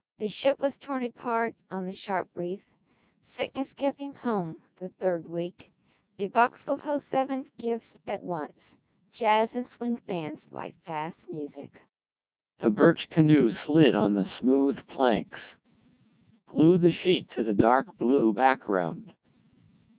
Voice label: CODEC2 1200 bps, speech, American